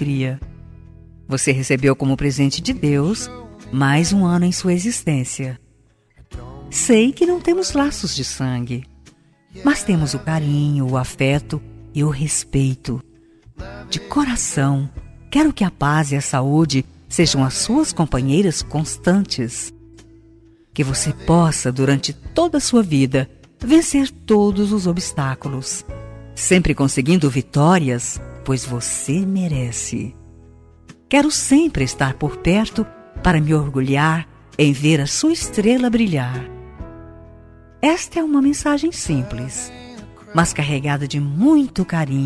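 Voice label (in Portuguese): Telemensagem Aniversário Enteado, Voz Feminina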